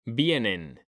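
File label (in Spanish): "VIENEN", Spanish, male; mekanta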